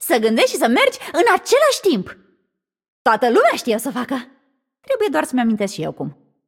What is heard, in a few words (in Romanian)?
Room2 VO Idle State Timed, vo, ro-ro